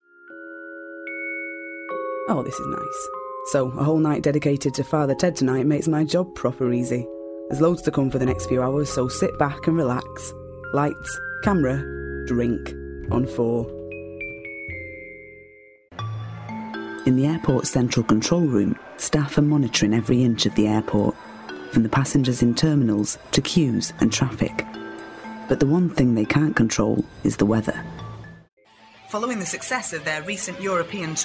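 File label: English UK Accented English Northern; GoLocalise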